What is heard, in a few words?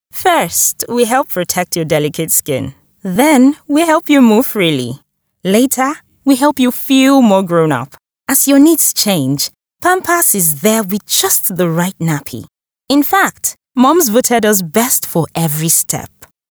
nigerian; Nigerian Voiceover